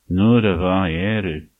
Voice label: good luck, manx

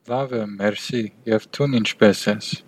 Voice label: fine, armenian western

